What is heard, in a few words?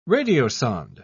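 "radiosonde", British English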